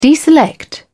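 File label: "deselect", British English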